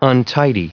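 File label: "untidy", US English, recite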